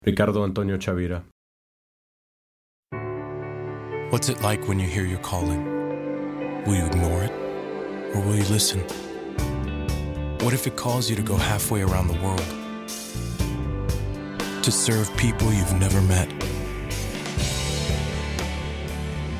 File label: commercial; Innovative LA